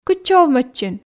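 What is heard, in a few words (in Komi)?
кытчӧ овмӧдчин, Komi, female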